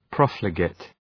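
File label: "profligate", English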